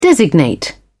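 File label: "designate", British English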